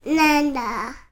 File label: human toddler 18 months boy say banana